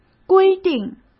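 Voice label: "规定", Mandarin Chinese; chinese-flashcards; hsk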